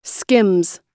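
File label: "skims", American English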